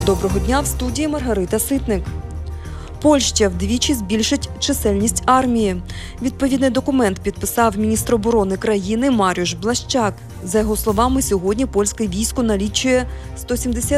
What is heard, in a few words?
Ukrainian Morning News